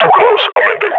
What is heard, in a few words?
near panic